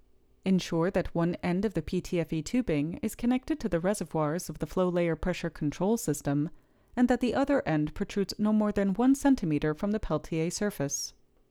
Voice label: Voiceover